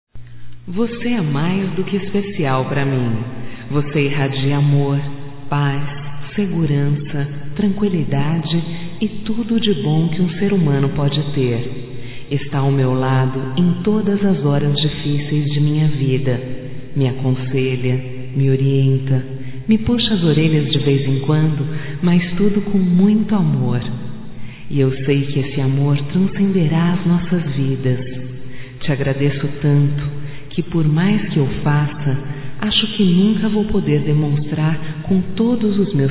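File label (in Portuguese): voz Agradecimento Ó mãe, fem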